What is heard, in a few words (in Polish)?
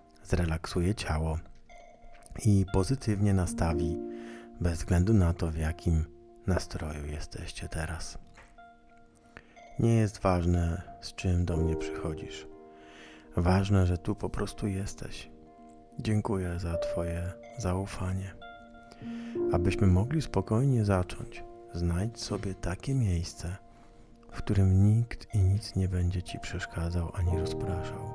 Medytacja spokoju